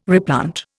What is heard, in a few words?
"replant", Indian